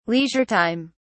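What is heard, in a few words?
"leisure time", British English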